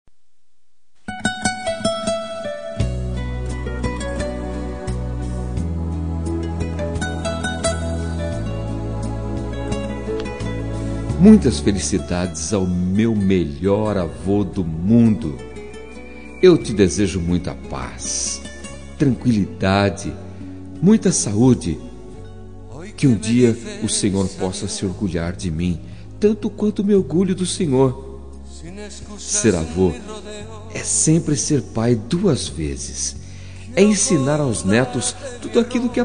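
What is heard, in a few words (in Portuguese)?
avo masc, Telemensagens; Aniversário de Avô – Voz Masculina; Telemensagens para todas as ocasiões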